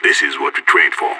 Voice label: radio lock n load; idf